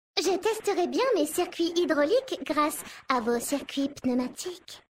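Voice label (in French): French Femme Flirt; Mécagnomes; Flirt Hydraulique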